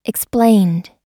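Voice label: "explained", US English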